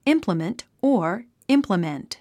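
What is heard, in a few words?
"implement", English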